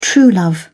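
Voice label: "true love", British English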